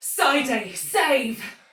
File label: See Ally Die, Soldier Female, Battle; Dialog; Barks; Sinecure